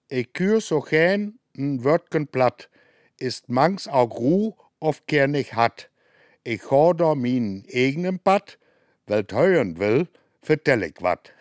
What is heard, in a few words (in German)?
Platt; Stiftsplatz; Plattdeutscher Weg; Nottuln